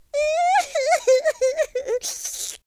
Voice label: lose loop, Cowgirl; speech